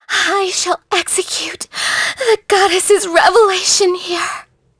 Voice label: Vox Skill, en; kingsraid; voices heroes en

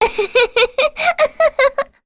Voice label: Vox Happy; Rehartna; kingsraid

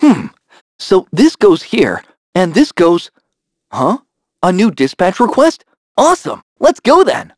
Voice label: vox, en; kingsraid

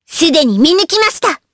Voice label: Vox Skill jp; Hanus; kingsraid

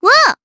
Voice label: Vox, jp; Miruru; kingsraid

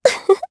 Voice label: Happy, jp; Lorraine; kingsraid

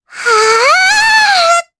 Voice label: Vox Casting, jp; Luna; kingsraid

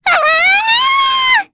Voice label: Casting, kr; Lavril; Kings Raid

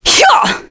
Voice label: vox get, kr; heroes; Demia; kingsraid

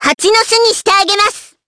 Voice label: Vox Skill jp; Hanus; kingsraid

